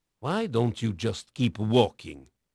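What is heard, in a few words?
Portuguese male, voice; Pirates Of The Caribbean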